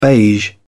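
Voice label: "beige", British English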